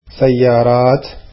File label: arabic cars